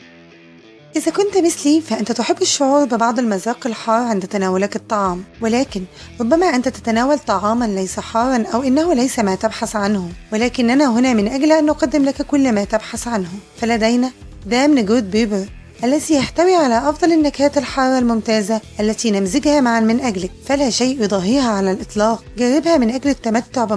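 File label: commercial, Arabic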